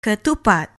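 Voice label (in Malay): "ketupat", Malay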